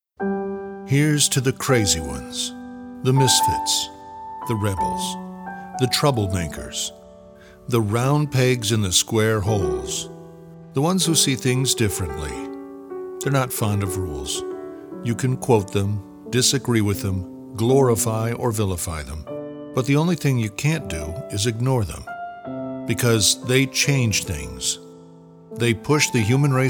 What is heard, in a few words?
Narration